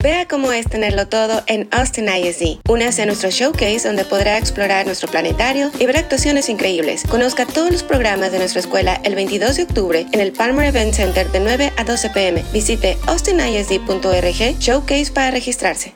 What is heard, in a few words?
Spanish